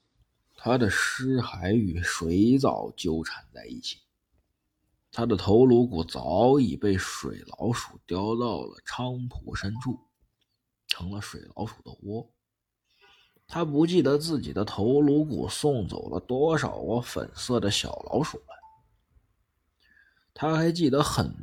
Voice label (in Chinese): 悬疑恐怖